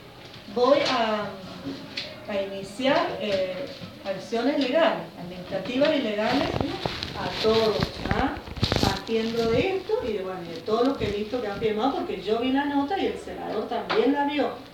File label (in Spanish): Voz amenaza; a profes firmantes